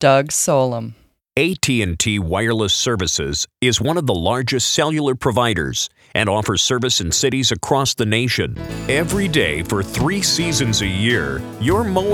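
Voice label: voice over commercials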